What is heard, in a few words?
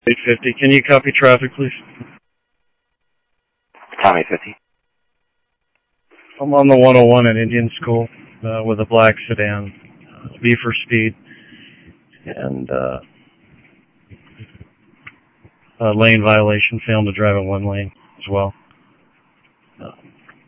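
Sheriff West County, scanner audio trunk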